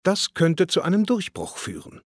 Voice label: Could Lead Break Through, German, Advisors, Speech; Civ5